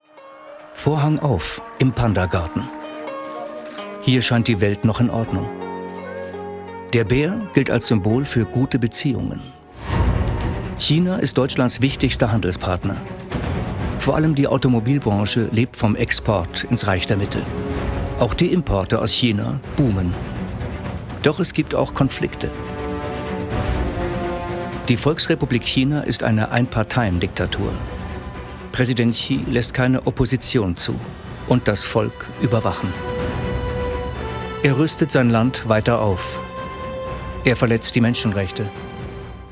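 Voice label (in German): Kommentar, Sprecher, Männer; Wir Deutschen und China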